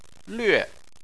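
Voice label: "lüe", Chinese, fourth tone; phonetics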